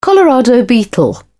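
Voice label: "colorado beetle", British English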